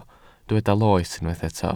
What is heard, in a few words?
"loes", Welsh